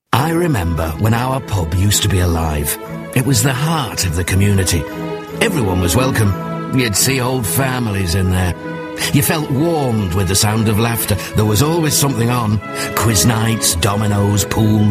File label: commercial, Mature Trustworthy British Voice